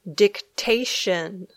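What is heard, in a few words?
"dictation", English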